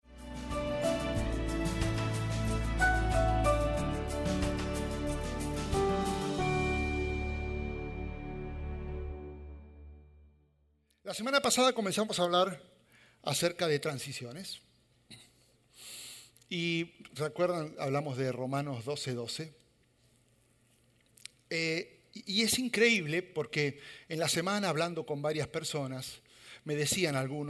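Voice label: SERMON SPAN, Spanish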